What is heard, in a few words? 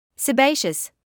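"sebaceous", British English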